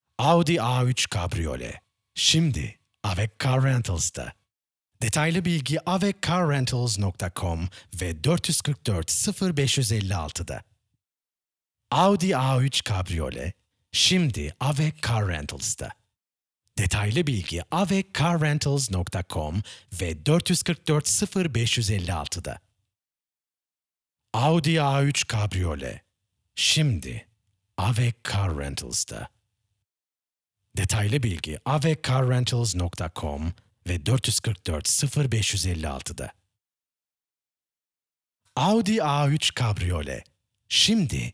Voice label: Male, Turkish; TR

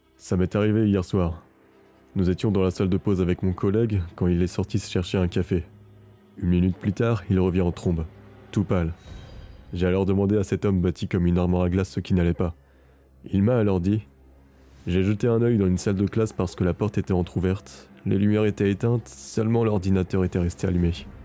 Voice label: French Male

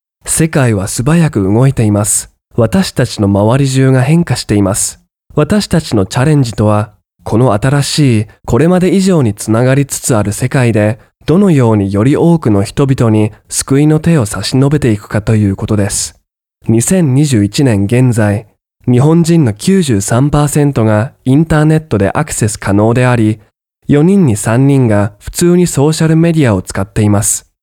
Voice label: Japanese, Male

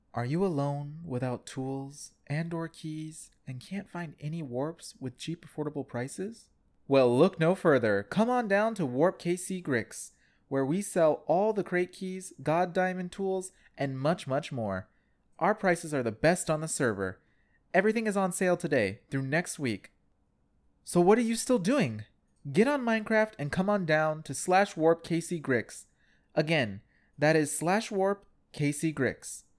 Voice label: English (Asian), Male

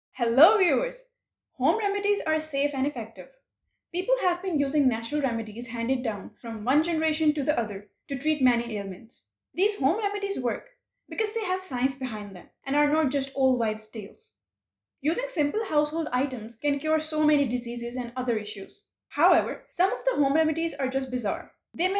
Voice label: English (Asian), Female

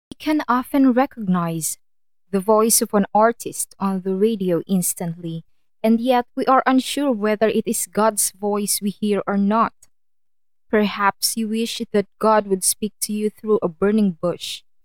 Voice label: English (Asian), Female

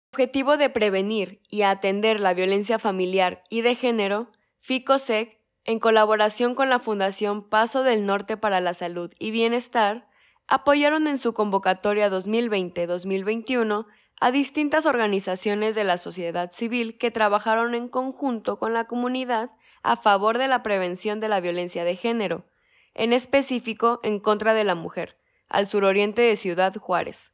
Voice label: Spanish (LA), Female

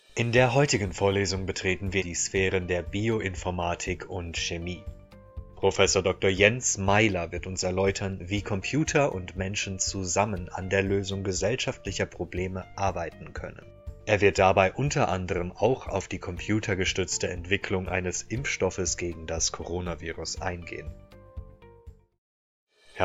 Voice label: German, Male

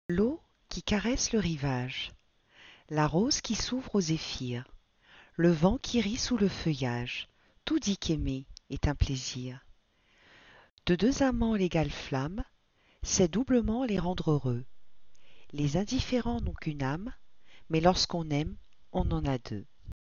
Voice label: French, Female

